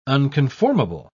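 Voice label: "unconformable", British English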